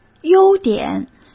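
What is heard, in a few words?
"优点", Mandarin Chinese; hsk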